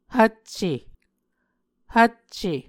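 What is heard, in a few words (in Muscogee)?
"hvcce", Muscogee word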